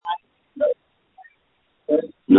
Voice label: call recording